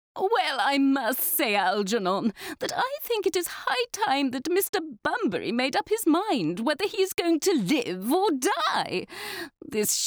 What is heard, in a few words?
foreigner